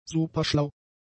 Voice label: "superschlau", German; adjectives